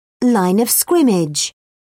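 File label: "line of scrimmage", British English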